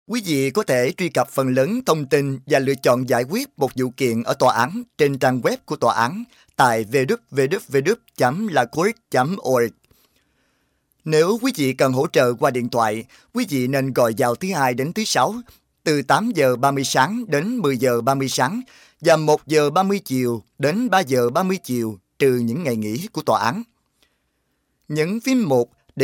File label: vietnamese voices vietnamese male